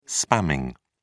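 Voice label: "spamming", English, British English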